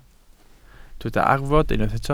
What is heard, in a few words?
"arfod", Welsh